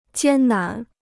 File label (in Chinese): "艰难", Chinese